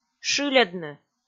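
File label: "шыльӧдны", Komi, female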